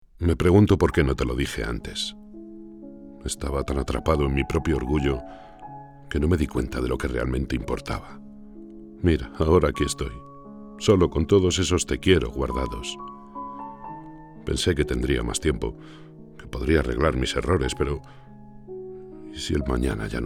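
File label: spanish podcasts, Spain; voice actor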